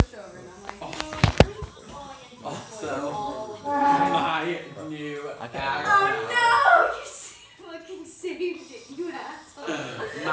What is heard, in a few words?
Studio Conversation